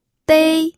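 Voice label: "dei", Mandarin Chinese, first tone; pinyin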